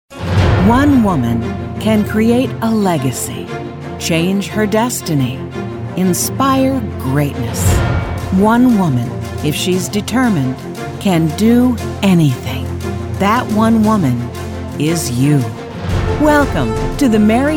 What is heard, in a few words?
narration variety mary kay seminar intro